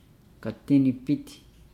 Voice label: "fish hook pearl shell", Nukuoro